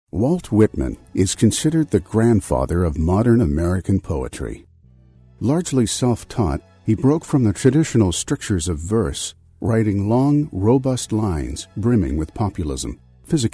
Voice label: canadian narration